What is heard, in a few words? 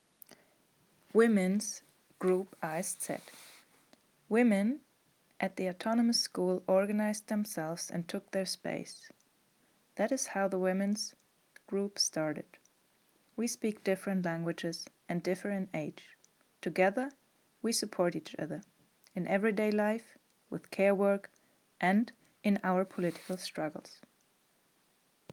english